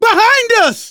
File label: watch out behind, survivor voice; manager; Left 4 Dead 2; left4dead2 dlc2